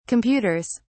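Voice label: "computers", English, British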